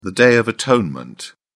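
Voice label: "day of atonement", English, British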